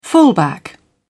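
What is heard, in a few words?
"fullback", British English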